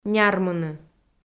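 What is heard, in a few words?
"нярмыны" Komi-Zyrian, female; kpv audio dict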